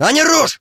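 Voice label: attack, Ukrainian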